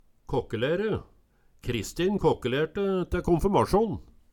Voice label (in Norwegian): kakkelere, Numedalsmål